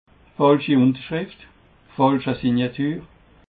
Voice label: "falschi", alsace

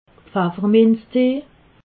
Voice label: "pfafferminztee", alsace

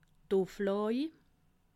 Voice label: "uflaw", alsace

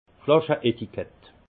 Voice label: "flasche", Alsace; les étiquettes; vin

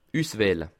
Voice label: "usswahle", alsace

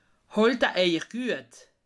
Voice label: "halta", alsace

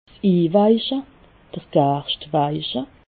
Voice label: "inweiche", alsace

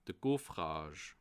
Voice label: "gaufrage", alsace

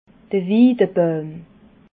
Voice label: "wiidebauim", alsace

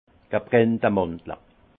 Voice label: "gebranntemandle", Alsace; vin; praline

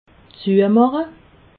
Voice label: "zuemache", alsace

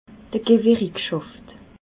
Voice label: "gewerikschaft", alsace

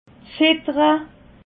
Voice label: "ridere", alsace

